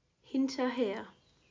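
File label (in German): "Hinterher", German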